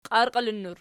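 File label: "qiarqiilinnur" nominative, Archi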